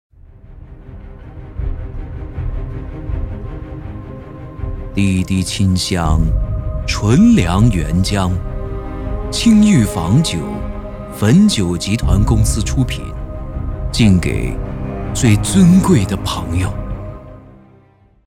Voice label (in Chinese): 酒类广告